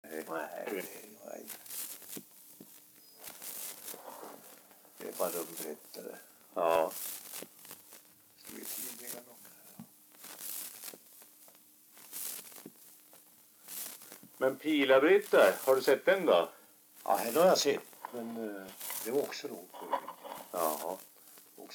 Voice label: dialekter; Folkminnen; Svenska sagor

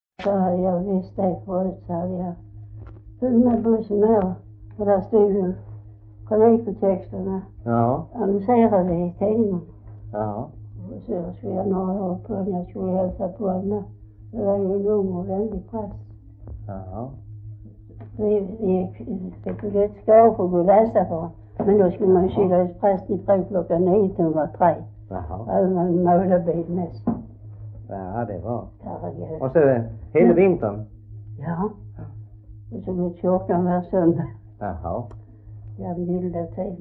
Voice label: Svedala SK, dialekter; Folkminnen; Svenska sagor

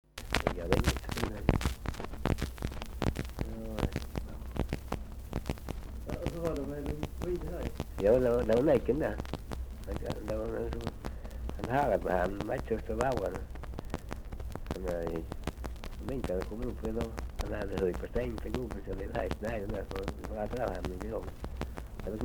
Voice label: Svenska sagor, dialekter; Folkminnen

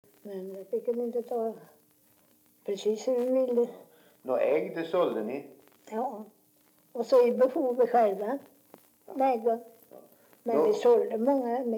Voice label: dialekter; Folkminnen; Svenska sagor

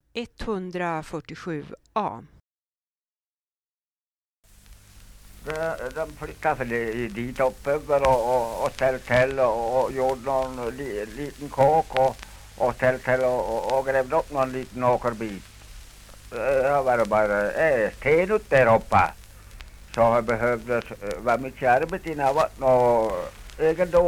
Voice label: dialekter, Svenska sagor; Folkminnen

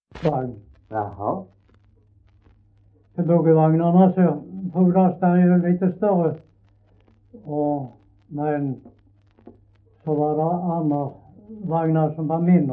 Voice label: Hallaröd, dialekter; Folkminnen; Svenska sagor

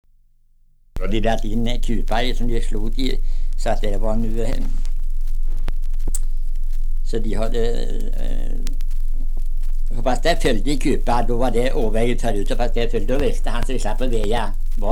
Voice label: Svenska sagor, dialekter; Folkminnen

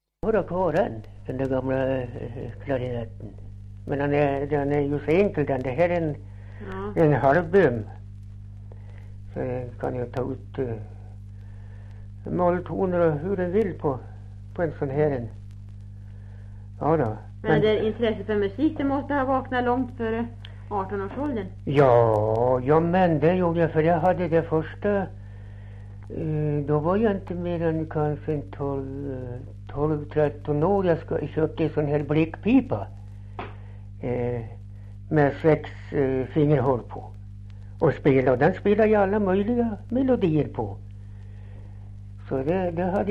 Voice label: Kopparberg, dialekter; Folkminnen

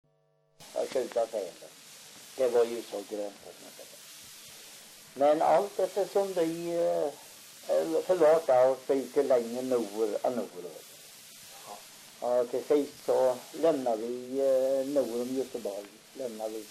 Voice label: dialekter; Folkminnen; Svenska sagor

